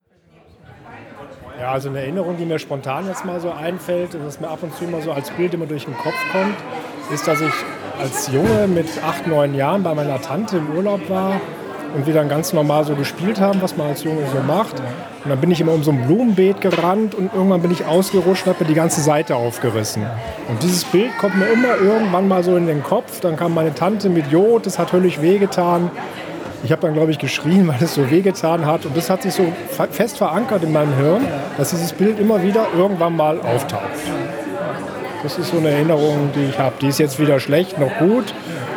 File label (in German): stimme m